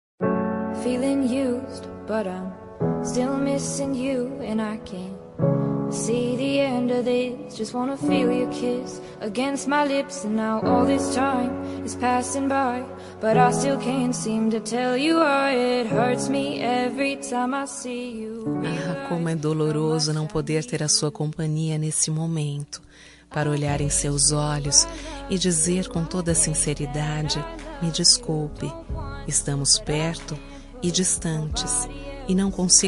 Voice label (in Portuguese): desculpa amante fem, Voz Feminina; telemensagem